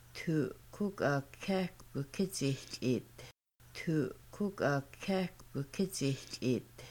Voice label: We 2 poured water into a cup, Kaska; Making Bannock

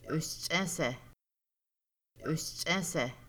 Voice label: flying squirrel, Kaska

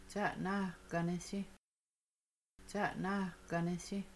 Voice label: You might dry the dishes, Kaska; Washing Dishes

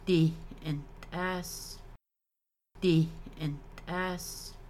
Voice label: You are frying chicken, Kaska; You1

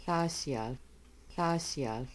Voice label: arrive come go I arrive, Kaska

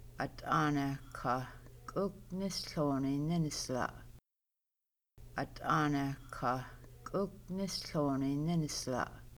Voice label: I put a book on lots of leaves, Kaska

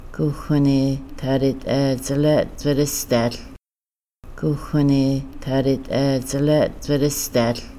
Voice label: Only three of us went, Kaska

